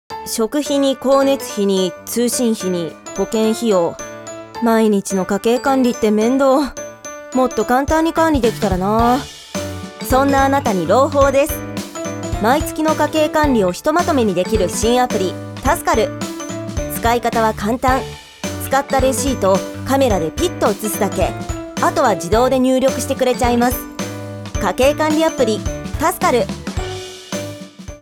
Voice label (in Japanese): Tik Tok ショート CM 普段の喋り声; タスカル